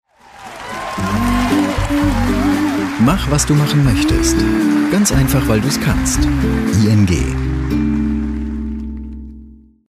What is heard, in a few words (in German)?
Werbung, Off; ING